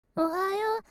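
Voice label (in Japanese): "おはよう" Japanese; 謎のホームビデオ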